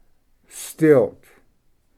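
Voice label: "stilt", English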